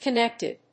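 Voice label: "connected", English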